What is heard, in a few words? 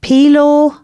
announcements, female